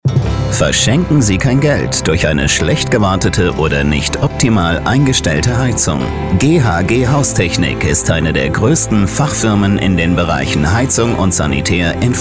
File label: Radiospot; Demo Homepage 2020